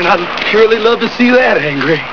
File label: angry